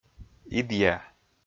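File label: "идъя", Komi, male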